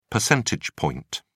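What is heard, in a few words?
"percentage point", British English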